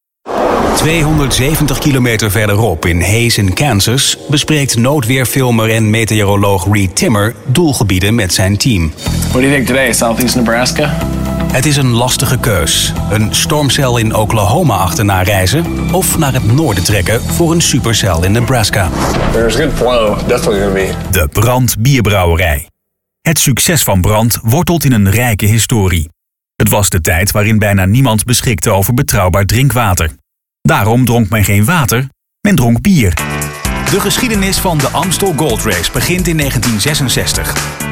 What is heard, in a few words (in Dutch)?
Commentaar